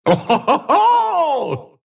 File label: Monkey laugh, ru